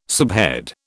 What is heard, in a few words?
"subhead", British